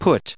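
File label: "put", US English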